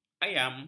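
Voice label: "ayam", Malay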